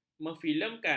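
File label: "memfilemkan", Malay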